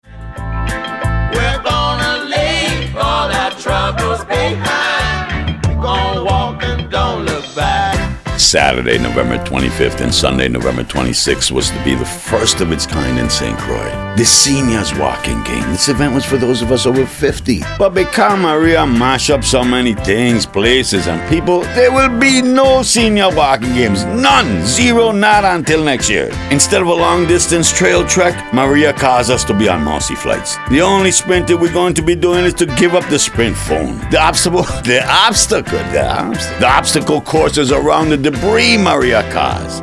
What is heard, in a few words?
radio spot; SW games